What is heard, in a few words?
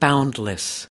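"boundless", US English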